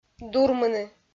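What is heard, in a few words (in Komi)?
"дурмыны", Komi, female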